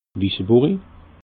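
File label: "wisseburi", Alsatian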